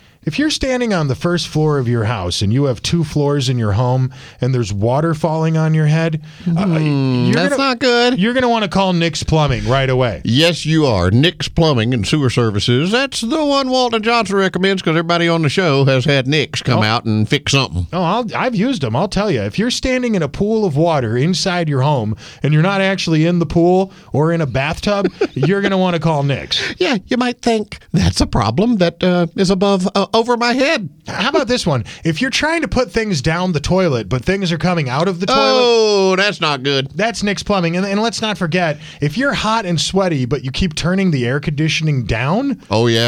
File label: RADIO AD; nicks plumbing radio ads